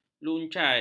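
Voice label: "luncai", Malay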